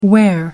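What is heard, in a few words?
"wear", American, English; AmericanBritish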